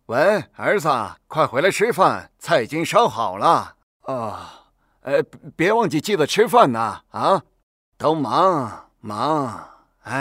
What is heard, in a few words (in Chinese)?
男-老头音